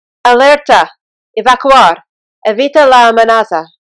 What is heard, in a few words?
Alert Spanish Only